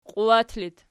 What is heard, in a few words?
"qiuwat", Archi